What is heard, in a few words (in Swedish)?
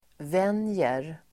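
"vänjer", Swedish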